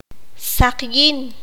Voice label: "blanco", Q'anjob'al

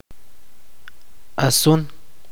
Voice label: "nube", chuj

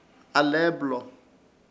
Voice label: "ALEEBLO" sigan por delante, Ishir Chamacoco

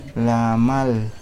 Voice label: Nawat palabras mar; pipil

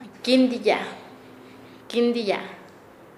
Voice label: pienar, Nasa Yuwe; paez

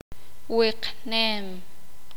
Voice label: "Adornarlo", Sakapulteko

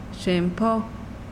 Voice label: "intestinos", mazahua

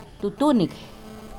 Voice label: Nawat palabras caliente; pipil

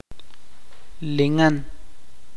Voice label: "estar de pie", chuj

